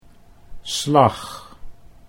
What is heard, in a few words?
"slag", Dutch